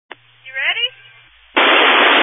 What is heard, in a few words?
Family Radio Service (FRS)